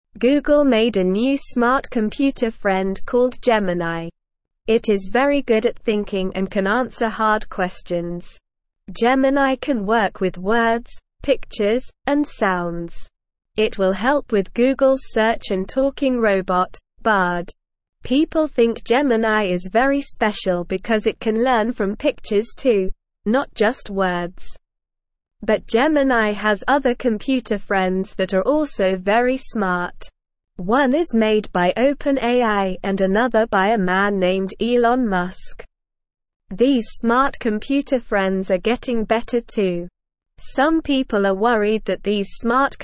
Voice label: English Beginner SLOW Reading; Googles Smart Computer Friend Gemini Can Answer Questions

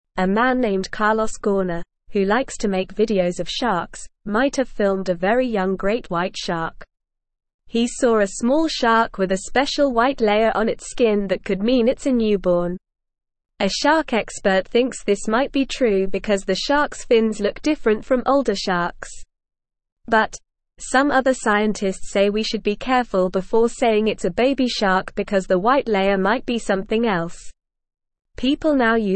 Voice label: English Lower Intermediate NORMAL Reading; English Newsroom; Carlos Finds Special White Baby Shark with Flying Camera; A man named Carlos Gauna, who likes to make videos of sharks, might have filmed a very you

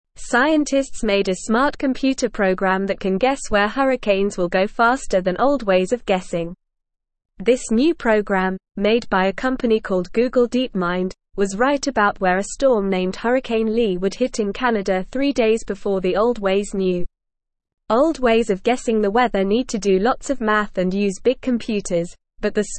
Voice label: English Lower Intermediate NORMAL Reading; Smart Program Guesses Big Storms Better Than Old; English Newsroom

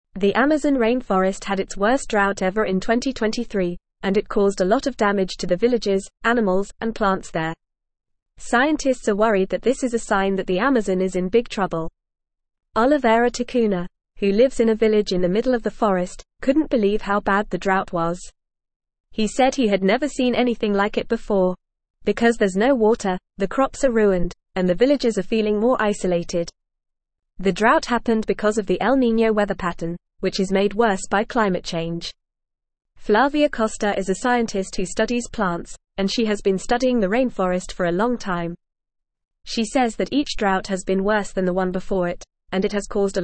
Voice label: English Upper Intermediate FAST Reading; Amazon Rainforest Faces Tipping Point as Drought Wo; English Newsroom

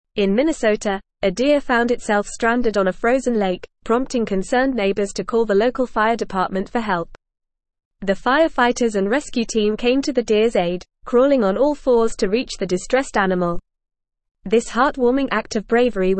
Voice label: English Advanced FAST Reading; English Newsroom; Firefighters Rescue Stranded Deer on Frozen Lake

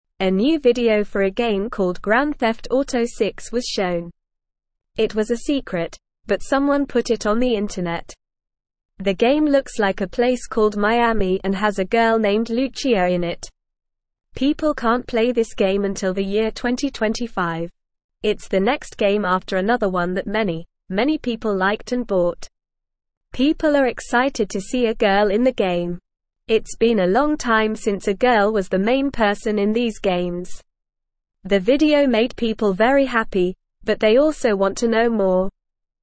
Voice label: English Beginner NORMAL Reading; New Grand Theft Auto 6 video with girl; English Newsroom

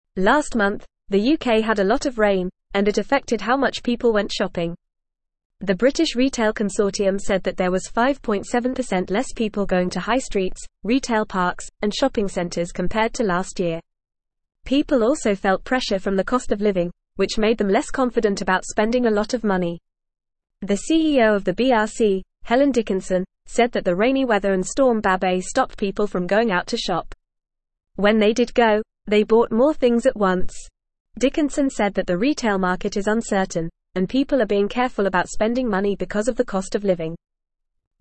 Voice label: English Upper Intermediate FAST Reading; English Newsroom

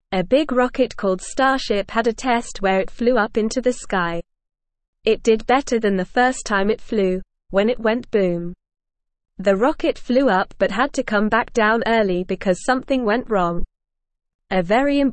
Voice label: English Newsroom Beginner NORMAL Reading; Starship Rocket Flies High Still Learning

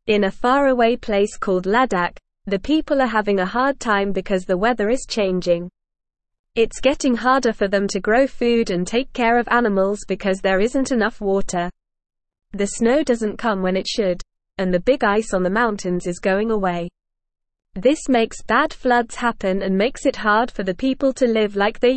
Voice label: English Beginner NORMAL Reading; English Newsroom; Saving Water in Ladakh Ice Towers Help People

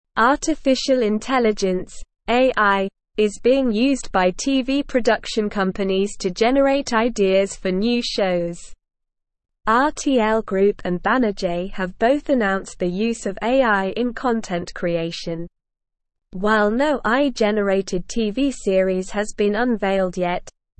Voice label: English SLOW Reading; AIs Role in TV Production Generating Ideas and Assisting Crea

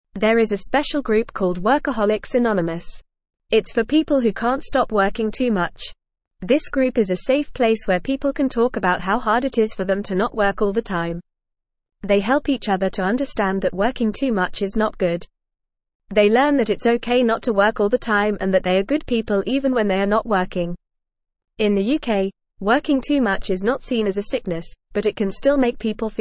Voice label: English Beginner FAST Reading; English Newsroom; Workaholics Anonymous Helping People Who Work Too Much

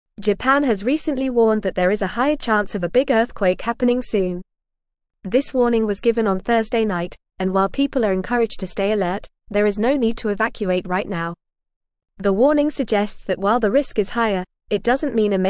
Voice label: English Upper Intermediate FAST Reading; Japan Issues Earthquake Warning Amid Rising Seismic; English Newsroom

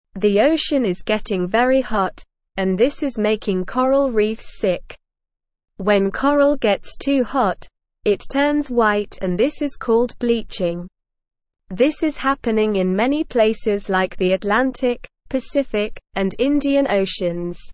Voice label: English SLOW Reading; Hot Ocean is Making Coral Reefs Sick

